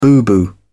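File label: "boo-boo", British English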